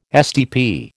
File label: "STP", American